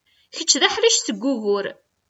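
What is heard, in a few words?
Kabyle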